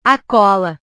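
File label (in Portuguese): "a cola", Portuguese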